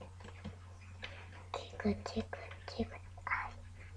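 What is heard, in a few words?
"jig", spoken word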